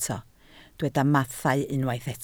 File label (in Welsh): "mathau", Welsh